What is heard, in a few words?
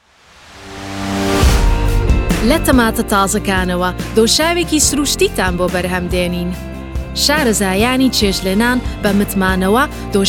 Commercial Kurdish; Male; 20s-30s